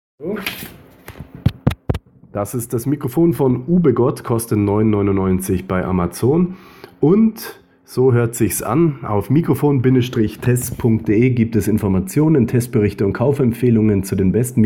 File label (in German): Smartphone Mikrofon Test Lavalier Mikrofon; Ubegod